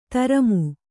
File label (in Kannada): "ಧರ್ಮಿ", Kannada